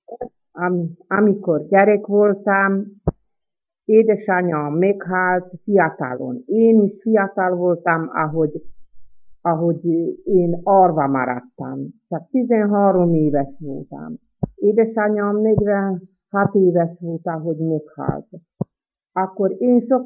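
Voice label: dialect collection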